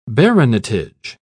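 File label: "baronetage", British English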